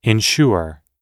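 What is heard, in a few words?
"insure", English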